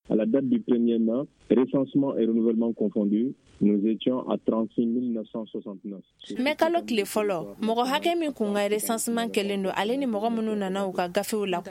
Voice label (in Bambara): magazine bamanankan